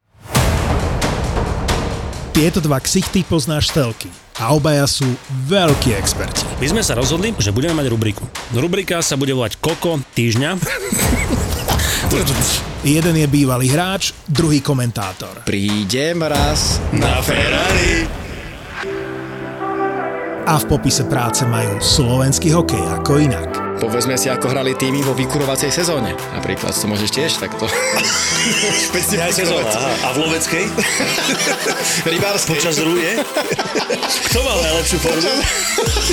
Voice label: TRAILER; Suspeak